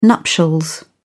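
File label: "nuptials", English, British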